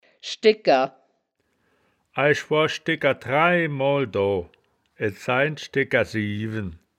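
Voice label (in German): "schdegker", wittlicher woerterbuch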